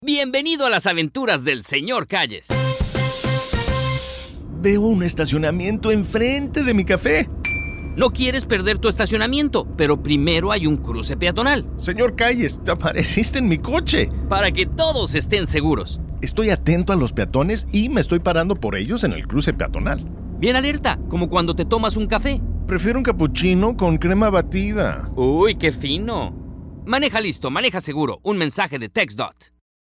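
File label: driver radio Spanish; pedestrian safety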